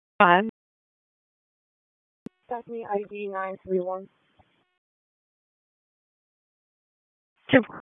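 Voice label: Police District Dispatch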